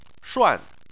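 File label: "shuan", Chinese, fourth tone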